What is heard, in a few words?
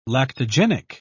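"lactogenic", British English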